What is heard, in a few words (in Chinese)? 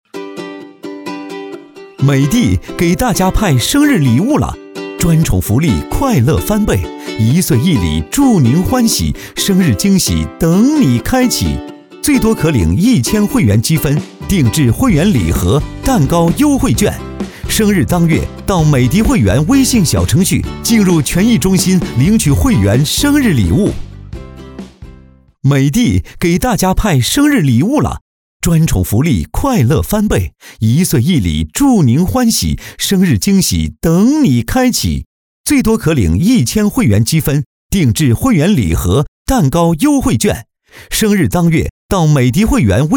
男 MG; 美的